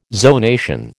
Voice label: "zonation", American

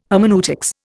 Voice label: "hermeneutics", Indian

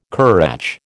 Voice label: "currach", American

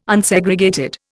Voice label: "Unsegregated", Indian

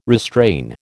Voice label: "restrain", American

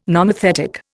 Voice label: "nomothetic", Indian

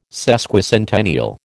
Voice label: "Sesquicentennial", American

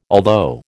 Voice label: "although", American

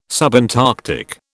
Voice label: "subantarctic", British

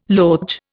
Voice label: "loge", Indian

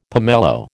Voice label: "pomelo", American

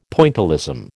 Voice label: "Pointillism", American

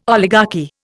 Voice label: "oligarchy", Indian

